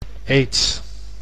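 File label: "AIDS", Dutch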